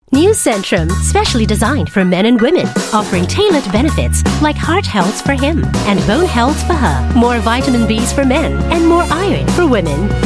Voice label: Asian English Commercial; Centrum for Men Women